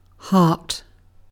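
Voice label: "hart", British English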